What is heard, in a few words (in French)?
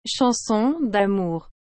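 "chanson damour", French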